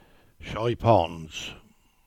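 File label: "Chypons", Cornish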